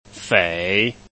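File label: "fei", Chinese, tone 3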